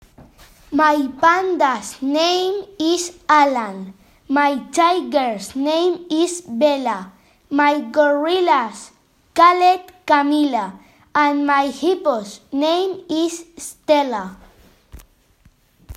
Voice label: animal rhyme